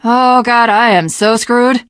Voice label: jumping off bridge, teengirl; video game; source engine; left 4 dead 2; survivor; voice